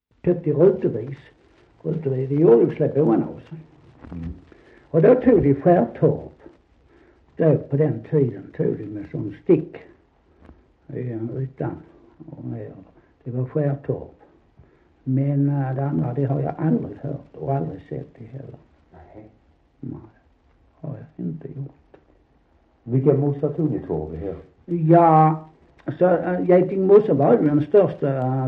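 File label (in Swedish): Sankt Olof Skåne, dialekter; Folkminnen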